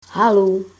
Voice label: hello, greenlandic

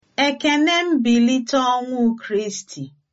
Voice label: easter, igbo

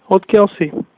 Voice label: where from, slovak

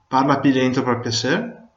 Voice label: slowly, Venetian

